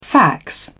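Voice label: "fax", British English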